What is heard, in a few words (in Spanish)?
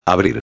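"abrir", Spanish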